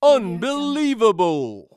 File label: man says unbelievable